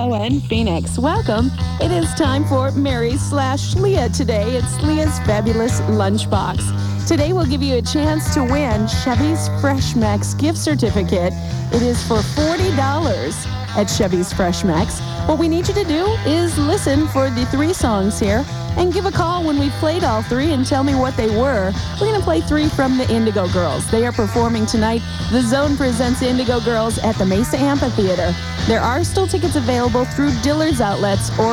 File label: announcer